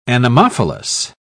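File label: "anemophilous", British English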